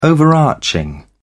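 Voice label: "overarching", British English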